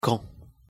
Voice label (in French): "quand", pronunciation fr